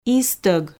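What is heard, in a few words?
"истог", Komi, female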